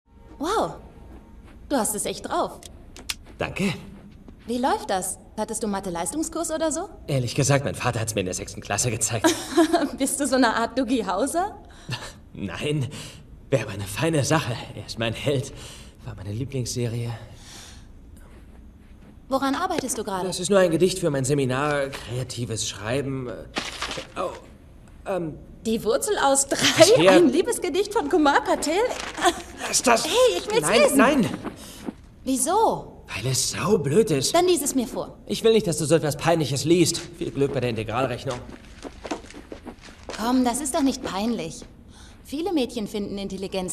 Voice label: JUGENDLICH COOL; Harold und Kumar Guantanamo; Daneese Ackles

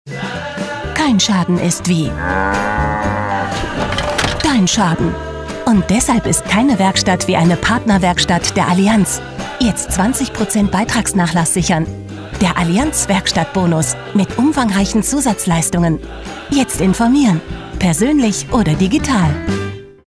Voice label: TV Spot Autoversicherung WERBLICH KOMPETENT; Allianz

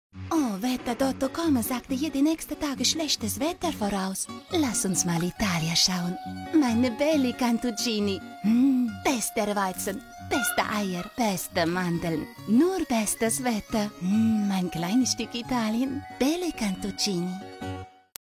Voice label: Parodie Italienischer Akzent TV-Spot CARTOON; Belli Cantuccini

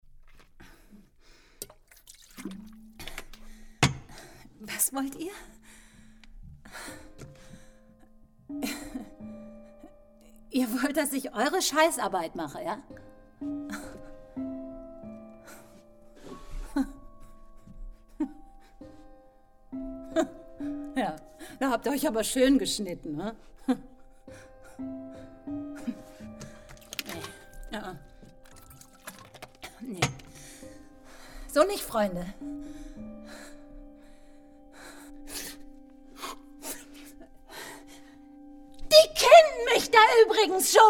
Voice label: Hoerspiel Rolle; NSU; Andrea

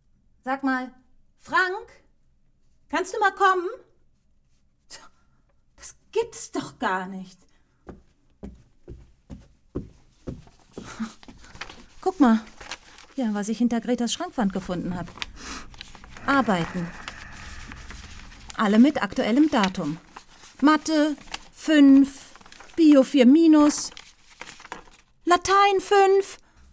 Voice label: Hoerspiel Chatroom Rolle Mutter

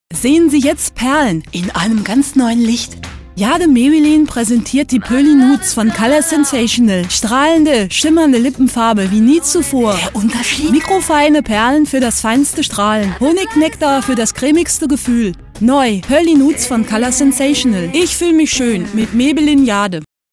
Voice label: Imitation TV-Spot IMITATION PARODIE; Angela Merkel; Poerlinudes

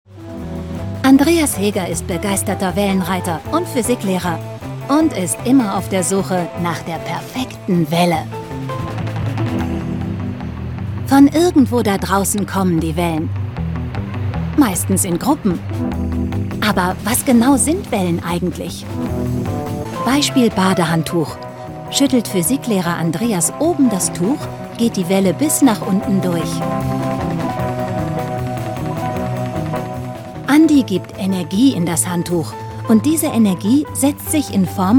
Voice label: SACHLICH KOMPETENT ERZAEHLER; WDR; Quarks; Welle

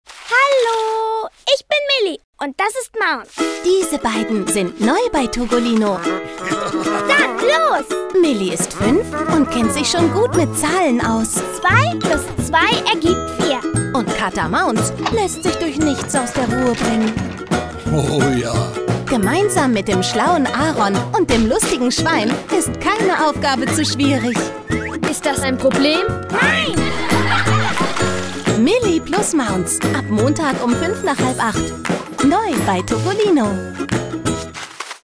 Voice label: Feststimme On-Air Promotion OFF JUGENDLICH; Super RTL; Milli plus Maunz

